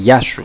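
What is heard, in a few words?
"giasou", Greek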